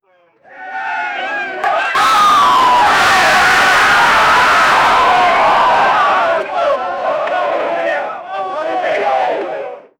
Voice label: boxing event announcement